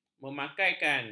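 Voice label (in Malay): "memakaikan", Malay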